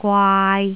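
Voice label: "gwaai3", Cantonese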